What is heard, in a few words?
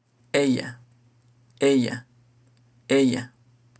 "ella" Spanish